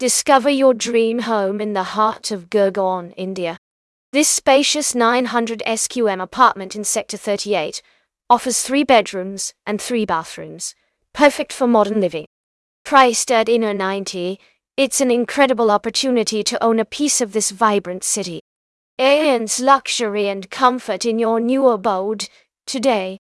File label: narration audio